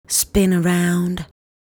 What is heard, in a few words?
"Spin Around", vocal phrases; Ultimate Vocals